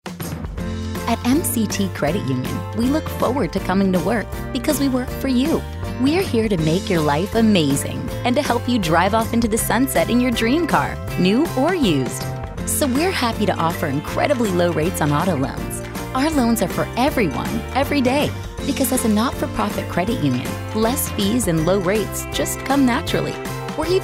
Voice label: Soft Sell

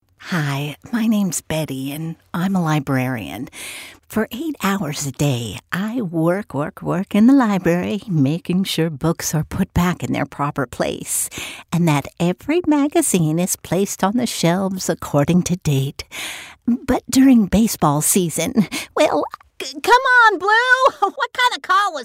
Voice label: Mature

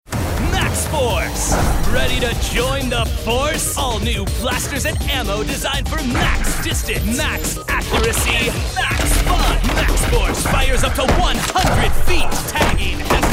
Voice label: Hard Sell

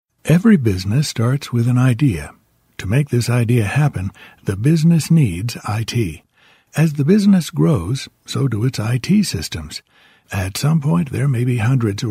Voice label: eLearning

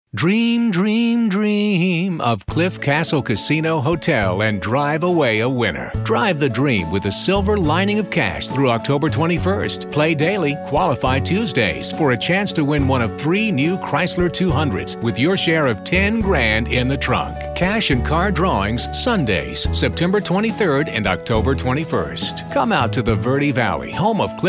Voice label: Soft Spoken

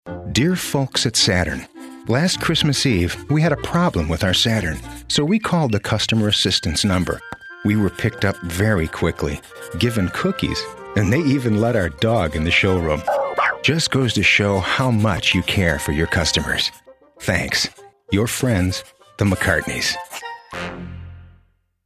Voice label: Conversational

